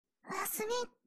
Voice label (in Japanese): おやすみ(囁き); 謎のホームビデオ